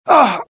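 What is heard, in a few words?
death, dialogue generic Italian; mohaa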